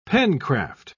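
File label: "pencraft", English, British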